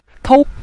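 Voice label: "tou", Mandarin, neutral tone; xiǎo luó bo tou; (coll.) little kid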